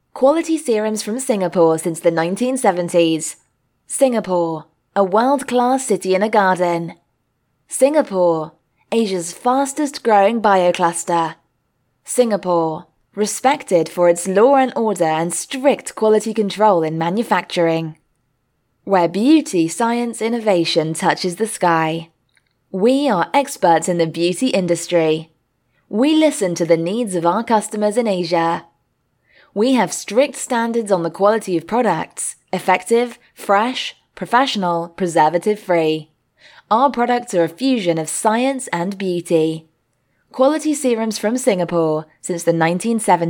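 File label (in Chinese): 外籍女英_外语_英式英语_宣传片类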